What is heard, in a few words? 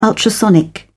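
"ultrasonic", British English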